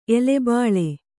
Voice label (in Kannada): "ಎಲೆಬಳ್ಳಿ", kannada